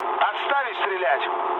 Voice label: player friendly fire, mood low, speech ru; War Thunder

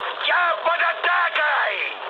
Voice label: aircraft damaged, mood high, speech ru

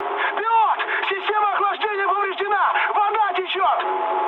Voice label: damaged water, mood high, speech ru; War Thunder

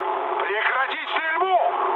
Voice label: player friendly fire, mood low, speech ru

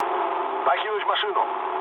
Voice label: bailout, mood low, speech ru; War Thunder

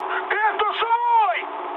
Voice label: player friendly fire, mood high, speech ru

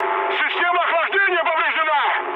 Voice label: damaged water, mood high, speech ru; War Thunder

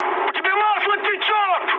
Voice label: damaged oil, mood high, speech ru; War Thunder

